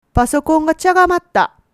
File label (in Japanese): "chagamaru", woman; hogen